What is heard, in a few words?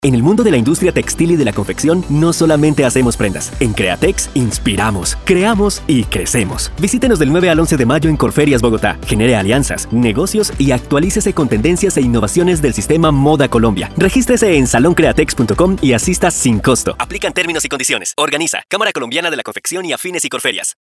spanish latin american announcements